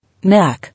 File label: "Knack", English